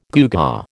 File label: "gewgaw", American